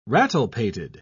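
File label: "rattlepated", British English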